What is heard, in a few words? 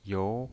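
"you" Mandarin Chinese pinyin voice, second tone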